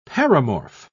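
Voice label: "paramorph", British English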